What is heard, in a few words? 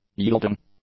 "yielding", english; adjectives